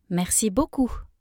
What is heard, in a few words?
"merci beaucoup", French; thank you